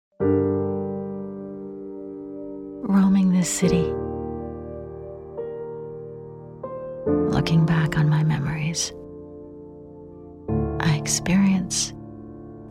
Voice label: Dubbing; Freedom